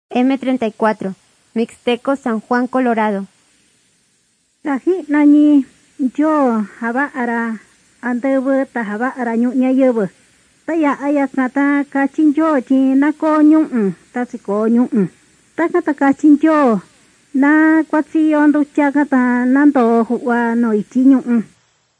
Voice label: mixtec diagnostic spanish mexico

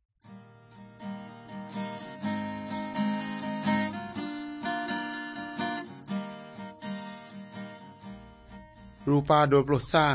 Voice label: good news, urak lawoi

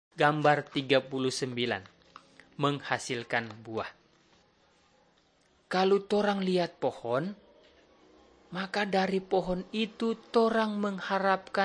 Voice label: malay manado; good news

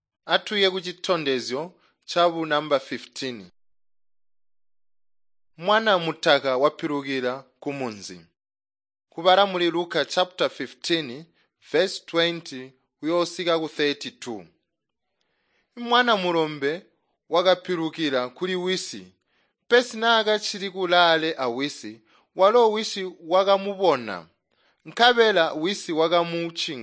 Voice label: tonga plateau, drama; chitonga bsz nt drama

